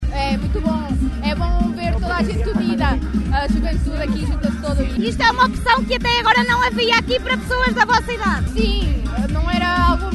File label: vox pop